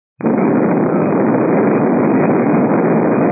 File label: 122 725MHz, scanner radio